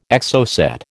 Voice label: "Exocet", American